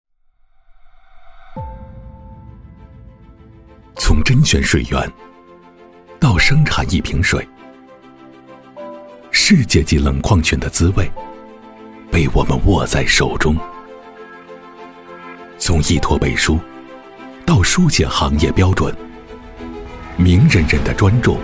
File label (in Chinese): 广告讲述型